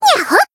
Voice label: attack, jp; nekoko